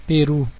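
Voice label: "peru", Brazilian Portuguese; gcompris; geography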